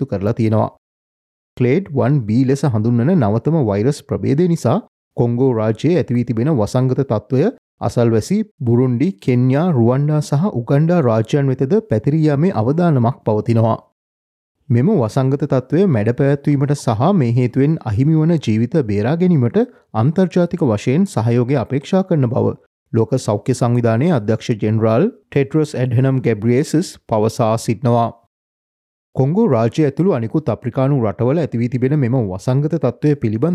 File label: sinhala explainer mpox; sbs